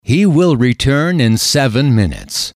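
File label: he will return in seven minutes, male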